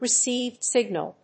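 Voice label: "received-signal", English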